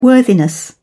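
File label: "worthiness", British English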